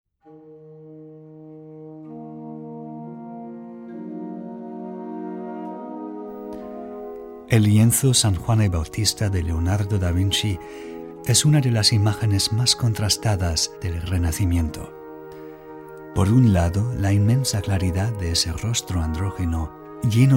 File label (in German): literatur spanisch